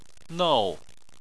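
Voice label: "nou" fourth tone, Chinese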